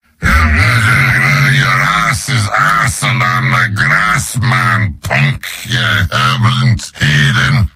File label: demoman gibberish; mvm